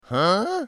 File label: "Huh?" Cartoon